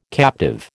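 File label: "captive", American English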